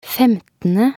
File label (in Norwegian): "femtende", nb NO